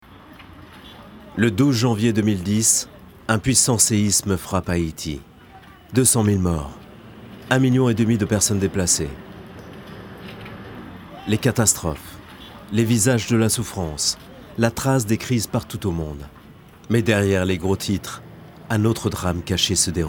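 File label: FR Documentary, French; GoLocalise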